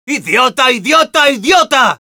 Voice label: negative vocalization; Scout; "¡Idiota, idiota, idiota!"